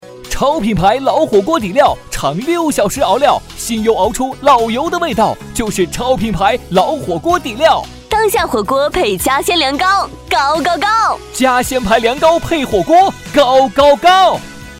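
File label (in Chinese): 男【促销】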